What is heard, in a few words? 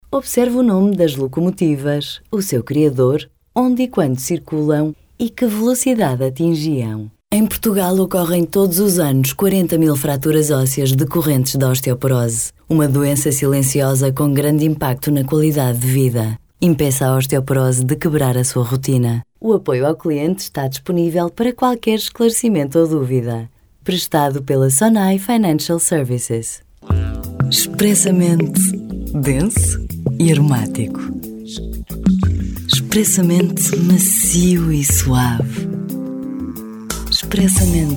female, portuguese voices